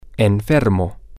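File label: sick, Spanish, male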